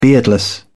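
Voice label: "beardless", English, British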